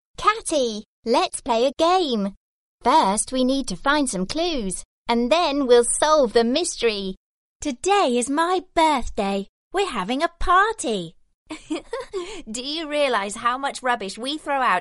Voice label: English F Child Voice